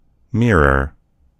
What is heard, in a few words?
"mirror", en US; gcompris